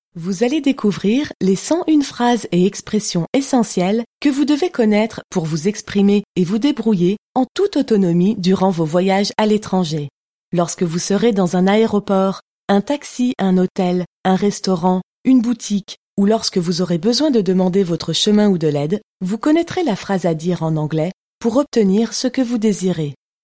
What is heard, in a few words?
E Learning Introduction